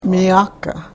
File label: "miakah", karuk